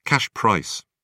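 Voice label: "cash price", British English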